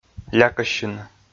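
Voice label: "лякӧссьыны", Komi, male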